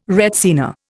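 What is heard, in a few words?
"retsina", Indian English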